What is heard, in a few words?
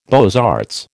"beaux arts", American